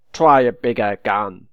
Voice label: taunt, voice

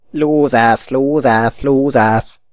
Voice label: taunt, voice

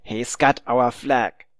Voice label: "seen flag", voice

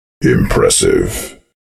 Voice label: "impressive", announcer, male

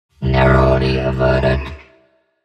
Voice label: narrowly, robotic announcer

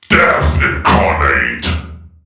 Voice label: death incarnate, announcer, male

